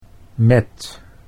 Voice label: "met", Dutch